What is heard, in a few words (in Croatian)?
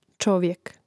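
"čovjek" Croatian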